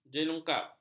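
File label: "jelungkap", Malay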